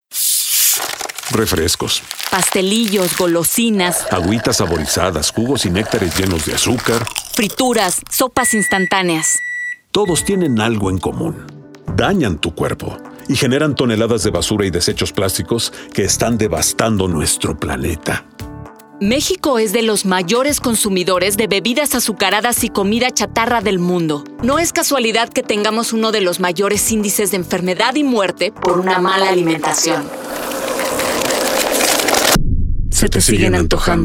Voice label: Spot Radio; CUIDA SALUD PLANETA